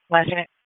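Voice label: Police District Dispatch, p25; wake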